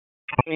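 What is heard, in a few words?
Police Downtown District Dispatch, p25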